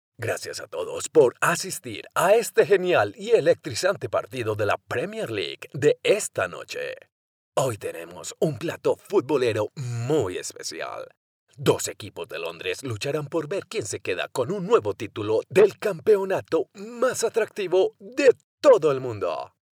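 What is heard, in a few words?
Spanish Spain male upbeat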